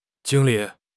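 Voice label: "jing1 li3", Mandarin Chinese; HSK3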